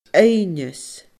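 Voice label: "aoibhneas", Scottish Gaelic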